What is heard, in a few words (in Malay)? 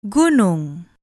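"gunung", Malay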